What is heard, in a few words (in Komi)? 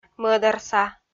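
"мӧдарса", Komi, female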